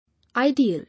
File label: "Ideal", English